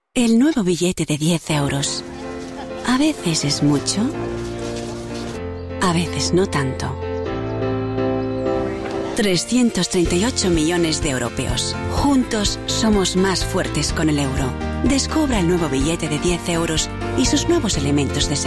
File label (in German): werbung, spanisch